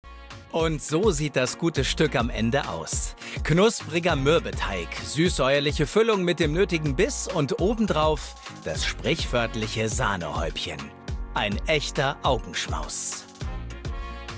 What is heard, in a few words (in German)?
Kommentar, maenner; Promis backen privat